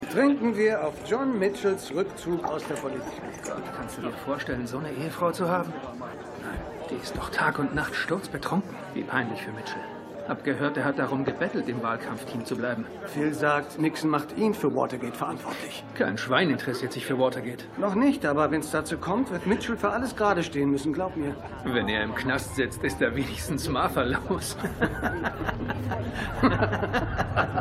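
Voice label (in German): Lästernder Mann; Gaslit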